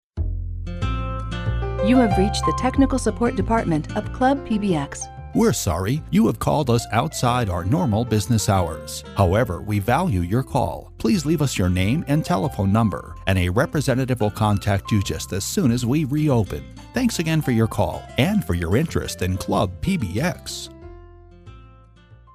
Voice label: voicemail